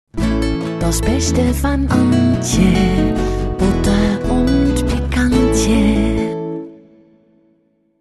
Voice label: frau funkspot

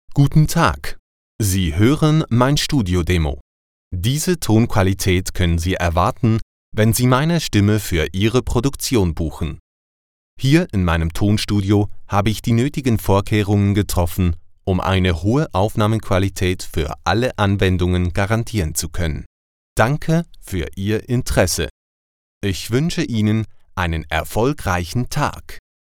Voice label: Studio de

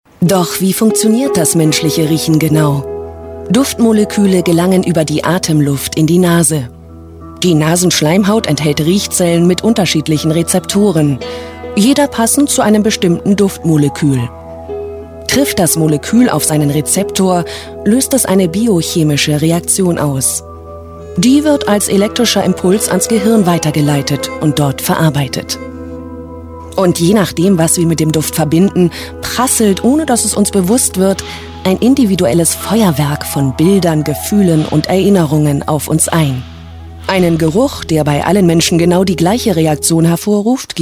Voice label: doku; ard; w wie wissen

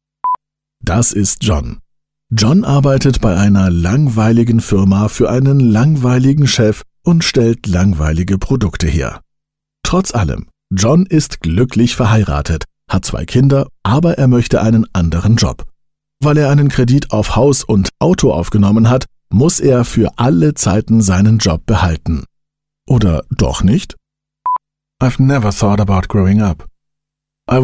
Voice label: deutsch englisch

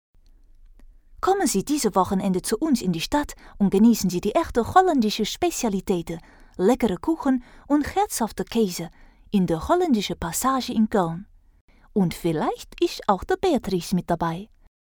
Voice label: hollaendisch akzent

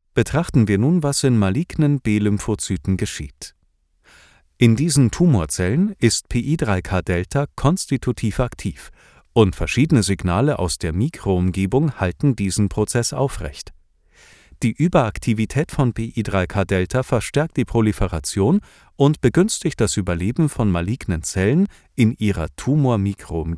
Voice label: e learning sachlich informativ

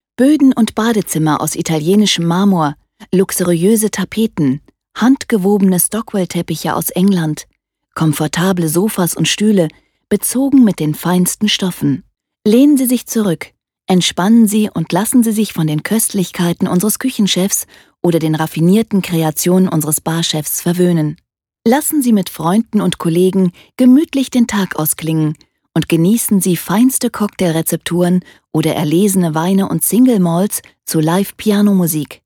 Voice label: Hotelansage

